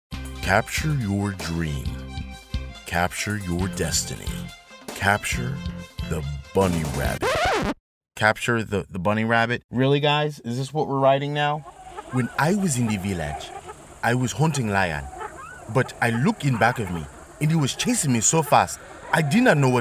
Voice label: english american animation